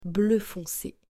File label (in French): "Bleu fonce", French